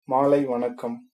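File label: good evening, tamil, male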